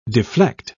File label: "deflect", pronounce